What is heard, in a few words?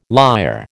"lyre", American